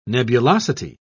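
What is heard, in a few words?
"nebulosity", British English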